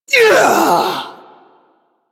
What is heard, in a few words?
Ability Long Cast, Barks; Warframe; Corpus Lich; Persona C